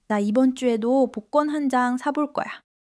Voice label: joy